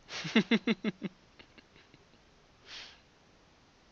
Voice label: nasal vowel

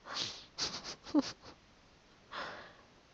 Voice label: fricative

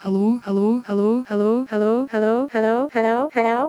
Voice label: 3D Female Dominance Continuum Low To High

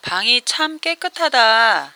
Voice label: Korean Sarcastic

